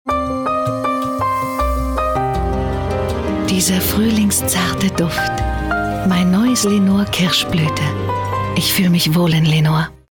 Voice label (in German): Sanft